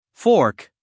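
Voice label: "fork", American English, male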